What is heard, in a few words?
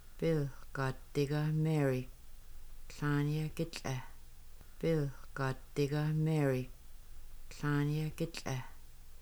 Mary can run faster that Bill, Kaska; Log Cabin